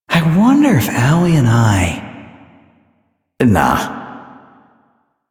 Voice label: Citizen Male, en, Mall; Citizens; Warframe

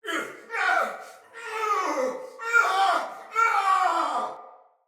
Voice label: Death Fire Soldier Male; Battle; Barks; Dialog; Sinecure Soldier Male A

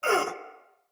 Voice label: Pain Soldier Sword Agent Male

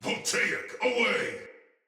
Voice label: Attack, Barks Battle Soldier; Sinecure; Ode Tech

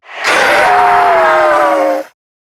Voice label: Death, en; Zariman Wraith

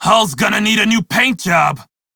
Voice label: crew ship low, male; Dialog; en; Warframe; Railjack Crew; Red Veil

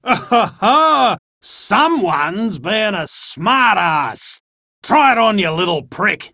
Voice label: Enemy Spotted Chipper; Dialog; Barks; Battle; Warframe

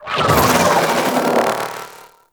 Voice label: Vocal Attack; Warframe; Sentients; Conculyst

